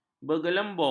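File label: "bergelembung", Malay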